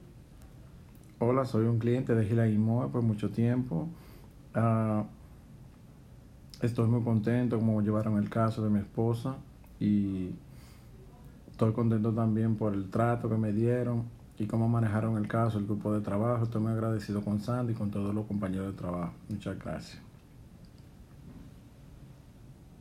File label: Voice Blog recording